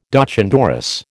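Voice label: "doch an dorris", American English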